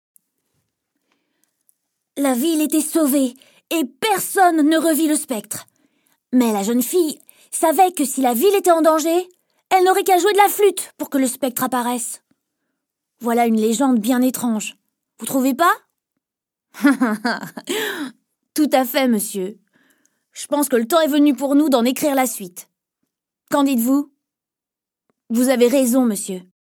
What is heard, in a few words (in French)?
Voix petit garcon, Jeu vidéo